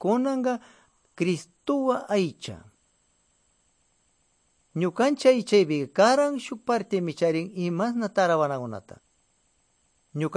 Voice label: good news quichua de pastaza

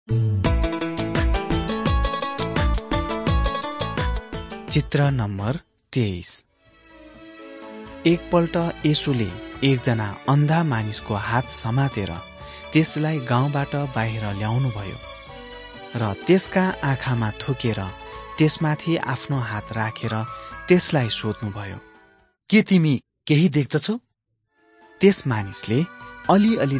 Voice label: jesus teacher and healer nepali

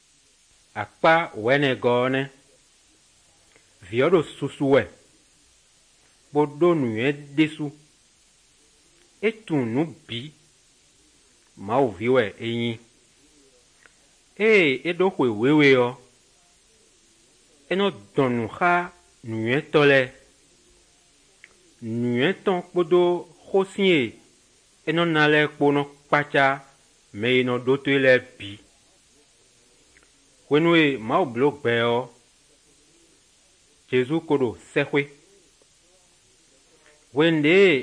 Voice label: good news, fon fongbe bible drama

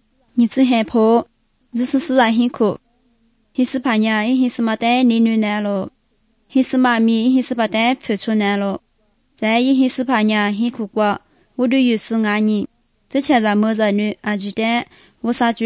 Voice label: good news lisu southern, drama

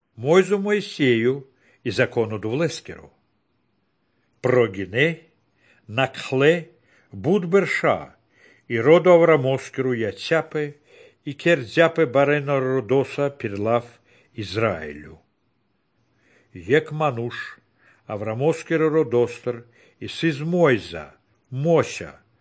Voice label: good news baltic romani

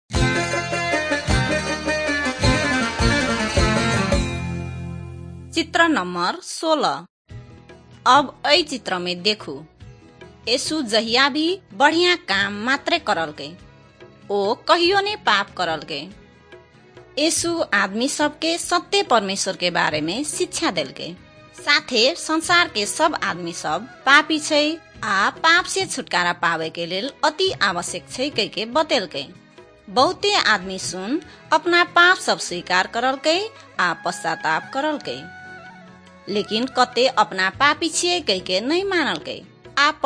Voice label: maithili thetiya

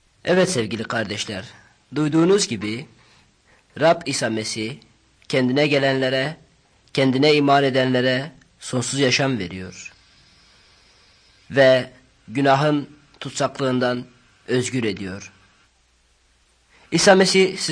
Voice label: words of life, turkish

